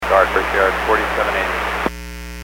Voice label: Aviation 121.5 Emergency